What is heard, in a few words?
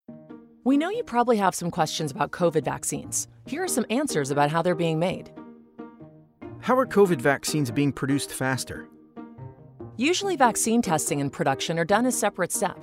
Healthcare Voice Over Female Voice Over